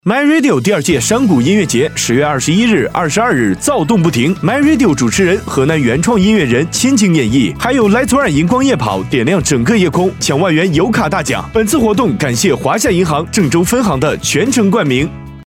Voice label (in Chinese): 广告 电台活动 酷炫活力